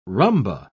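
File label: "rhumba", British English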